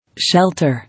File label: "Shelter", English